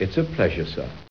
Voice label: pleasure sir, Male voice, "It's a pleasure, Sir."; replacement event sounds